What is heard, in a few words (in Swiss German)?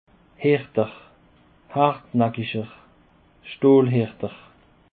"herter", alsace